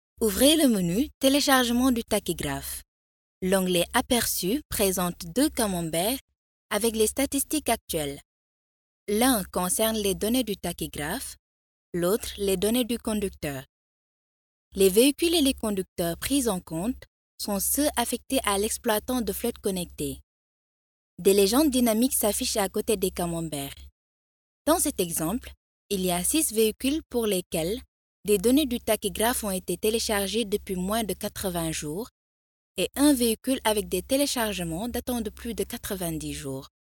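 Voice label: African French, female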